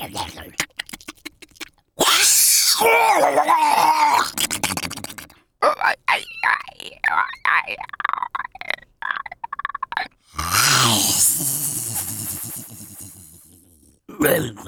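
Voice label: animation men creatures, Voice Over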